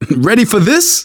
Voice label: ask ready, survivor voice manager; Left 4 Dead 2; left4dead2 dlc3

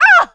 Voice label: hurt major, survivor voice; producer

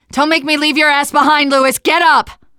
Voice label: revive friend loud, teen girl

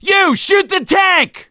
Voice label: battlecry tank, survivor voice; gambler

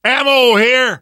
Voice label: spot ammo, namvet, survivor voice; Left 4 Dead 2; left4dead2 dlc3

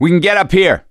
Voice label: misc directional, voice; gambler

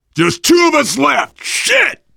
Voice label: double death response, voice, biker; Left 4 Dead 2; left4dead2 dlc3; survivor

